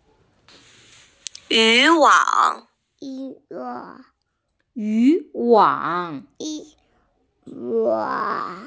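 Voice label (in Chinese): "yu2 wang3" Mandarin Chinese, female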